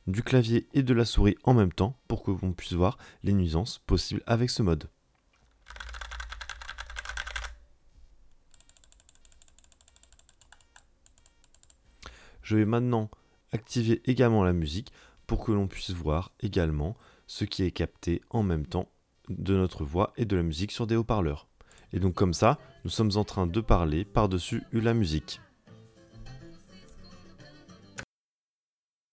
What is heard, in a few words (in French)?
cherry um 9 pro micro bidirectionnel